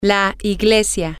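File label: the church, spanish, female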